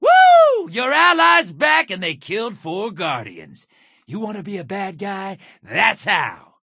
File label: bad guy